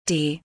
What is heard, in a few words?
The letter D in German